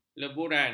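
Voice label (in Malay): "leburan", Malay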